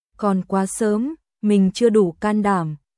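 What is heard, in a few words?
Vietnamese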